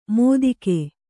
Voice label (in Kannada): "ಮೋದಿಕೆ", kannada